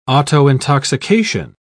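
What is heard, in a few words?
"autointoxication", English, British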